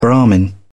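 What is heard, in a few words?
"brahman", English, British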